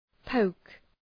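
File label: "poke", English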